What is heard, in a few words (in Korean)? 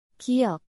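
"ㄱ", Korean, consonants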